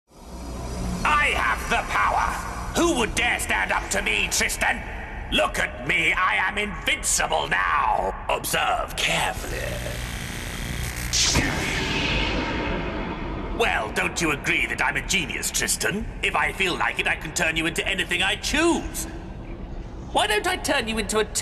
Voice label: cartoon character